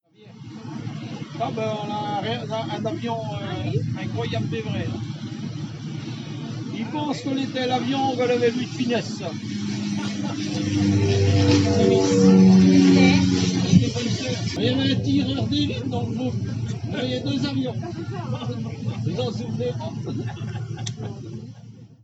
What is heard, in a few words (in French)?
bateau ouessant accent breton